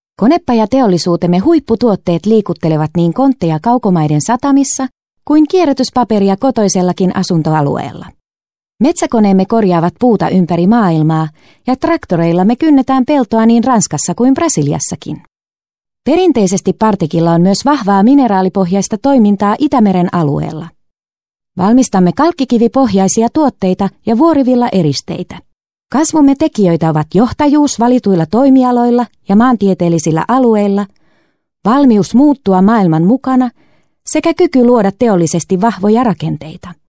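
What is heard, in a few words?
finnish female narration; voice talents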